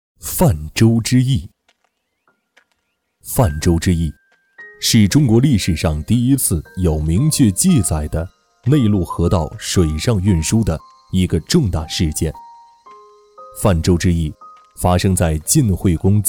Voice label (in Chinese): 课件讲解